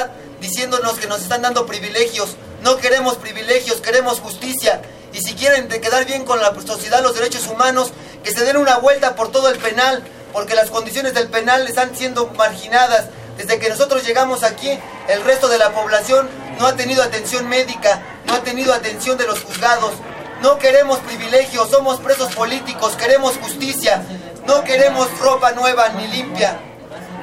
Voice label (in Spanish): hombre nuevos uniformes; presas del penal de santiaguito